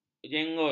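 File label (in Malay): "jengul", Malay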